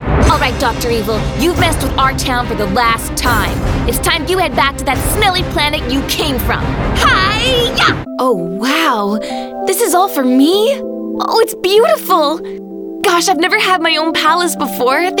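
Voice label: animation, voiceovers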